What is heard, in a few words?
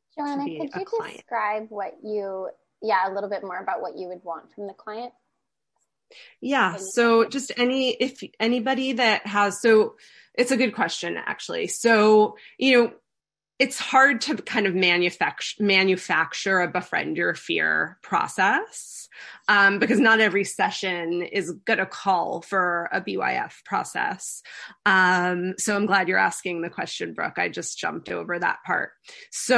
Coaching Demo